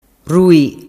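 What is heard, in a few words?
"rui", Sicilian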